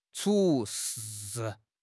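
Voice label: "除", Mandarin Chinese; HSK6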